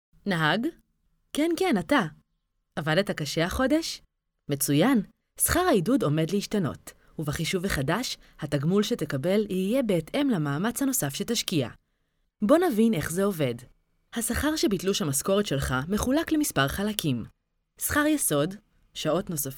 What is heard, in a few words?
hebrew e-learning